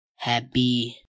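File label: "happy", English; properties of objects new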